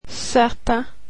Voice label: swedish alphabet z

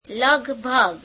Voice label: gujarati almost; લગભગ; [leguebhegu]

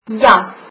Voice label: coat, afrikaans

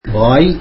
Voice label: tamil mouth

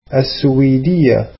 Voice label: arabic Swedish

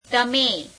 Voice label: "you", Gujarati; તમે; teme

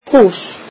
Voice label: icelandic house

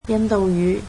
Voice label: "Hindi", cantonese

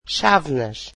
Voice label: "cups", Portuguese

